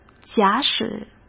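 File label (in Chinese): "假使", Mandarin Chinese; hsk; chinese-flashcards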